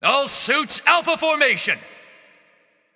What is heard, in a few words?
Announcer Match Start; MechaCommander